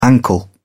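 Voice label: "ankle", British English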